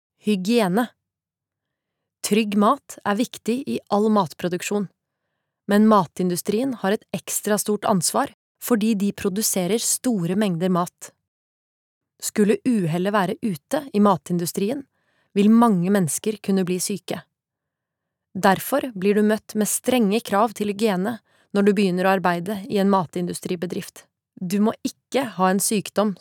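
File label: Norwegian textbook, norwegian female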